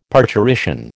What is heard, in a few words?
"parturition", American